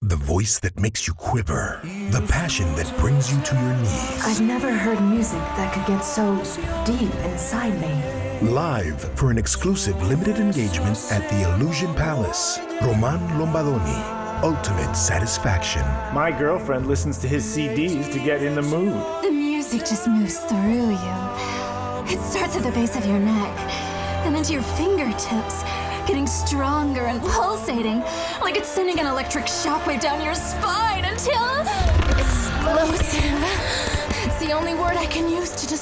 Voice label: Commercial; Roman Rombadoni Ultimate Satisfaction

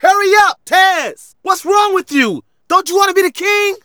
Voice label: Narration; Ollie King

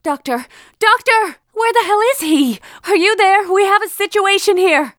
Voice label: nurse female find body

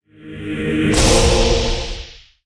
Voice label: God Speech; ziggurat; Wii U

